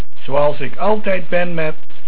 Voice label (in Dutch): Altijd, Dutch